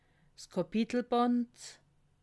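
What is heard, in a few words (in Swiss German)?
"kapitalband", Alsatian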